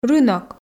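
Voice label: "рынок", Komi, female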